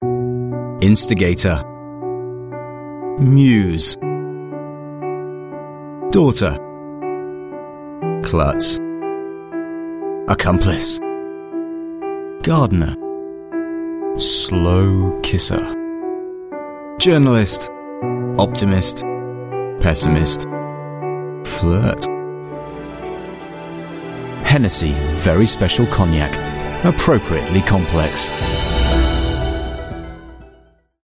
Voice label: UK English TVC, English UK Male; Hennesy